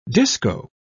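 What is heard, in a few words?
"disco", English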